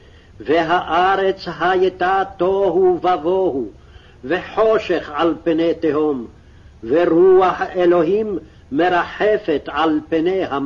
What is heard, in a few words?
Genesis Reading Practice, Aramaic